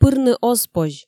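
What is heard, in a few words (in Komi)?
пырны оз позь, Komi, female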